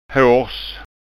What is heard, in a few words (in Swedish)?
"harrs", skanska ord